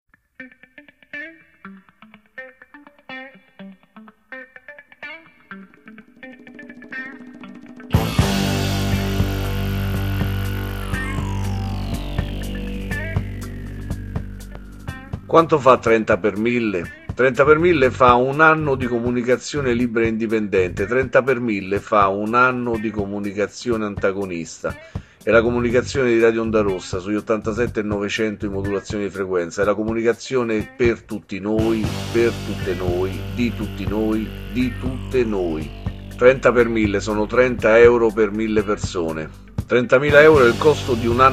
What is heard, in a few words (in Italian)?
spot abbonamento blues night